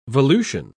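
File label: "volution", British English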